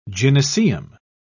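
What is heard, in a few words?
"gynaeceum", British English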